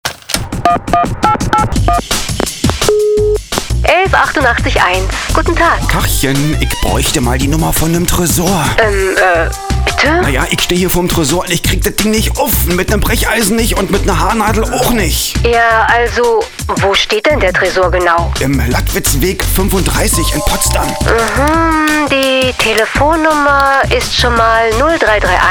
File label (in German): Auskunft